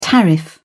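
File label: "tariff", British English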